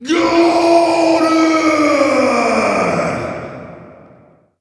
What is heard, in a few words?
scored voice